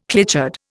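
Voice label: "clichéd", Indian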